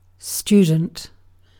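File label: "student", British English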